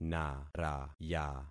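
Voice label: "naraya", concatenated syllables; Affixing experiment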